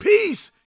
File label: preachin, gospel preacher